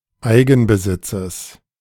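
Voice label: "Eigenbesitzes", German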